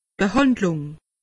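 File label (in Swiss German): "behandlung", Alsatian; medecine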